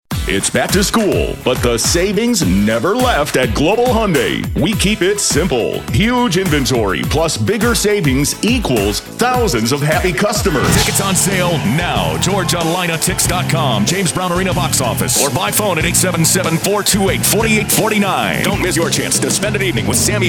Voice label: Commercials

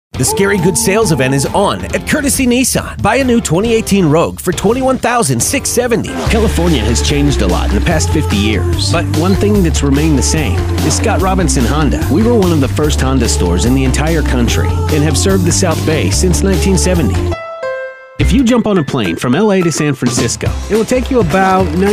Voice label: Car Spot

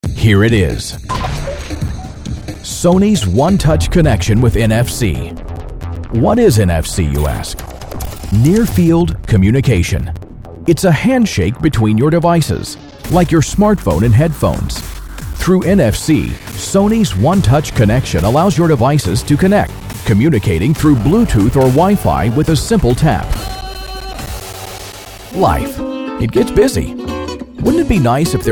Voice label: NARRATION

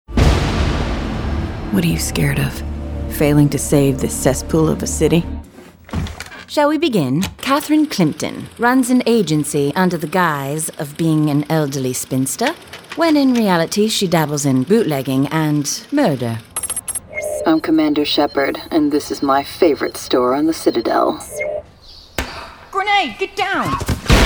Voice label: Character

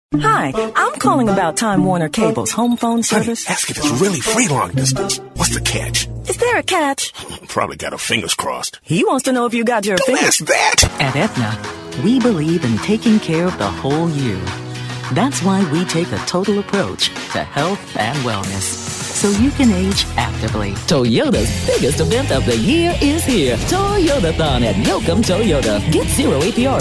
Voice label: Female Commercial

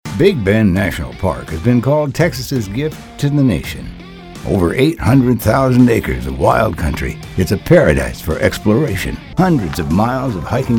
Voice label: Narration

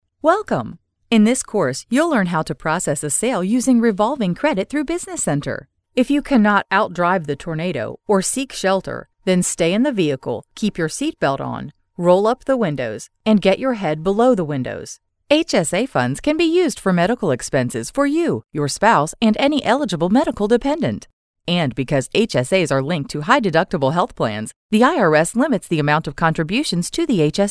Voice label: E Learning

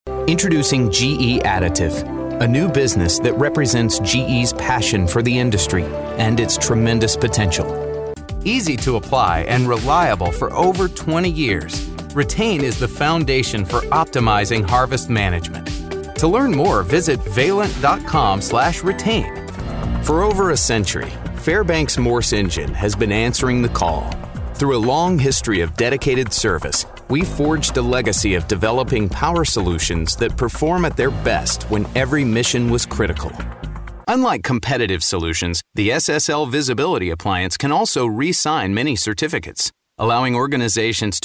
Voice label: Narration

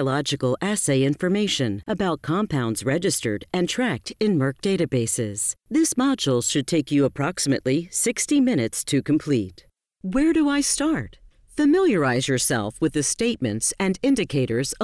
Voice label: e-learning

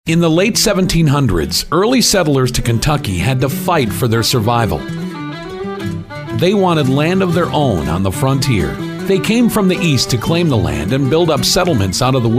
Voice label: Narration

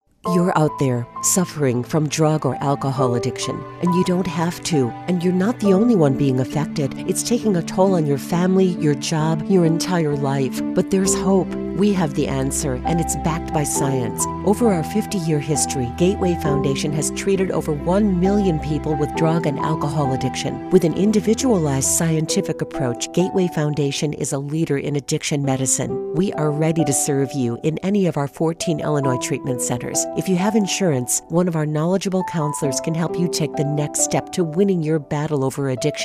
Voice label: Narration

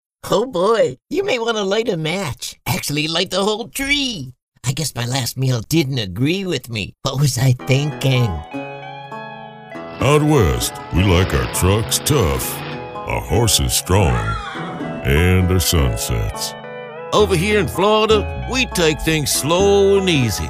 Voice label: Character Voice

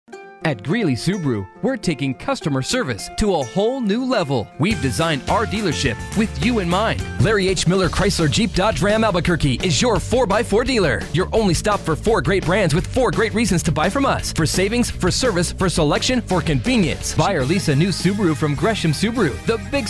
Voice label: Car Spots

